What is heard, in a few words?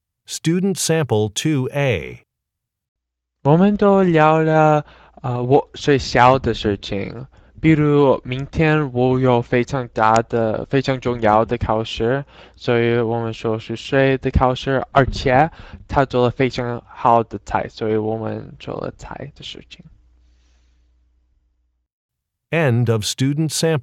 chinese conversation